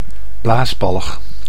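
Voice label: "blaasbalg", Dutch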